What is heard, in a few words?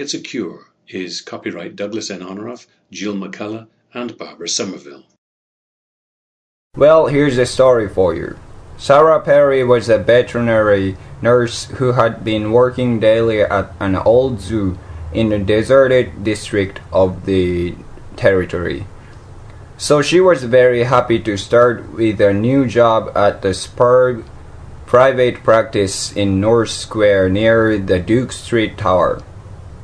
japan